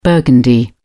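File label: "burgundy", British English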